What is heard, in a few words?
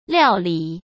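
"料理", Mandarin Chinese; hsk; chinese-flashcards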